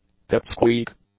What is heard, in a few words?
"pipsqueak", English; nouns